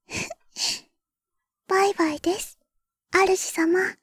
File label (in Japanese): ログオフ サウンド, システムボイス; ベル・カールステッド